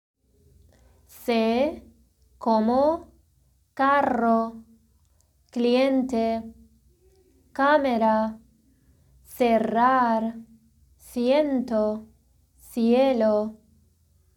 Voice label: spanish